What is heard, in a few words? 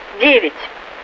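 Russian speech, Mi-8MT RI65; DCS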